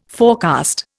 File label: "forecast", Indian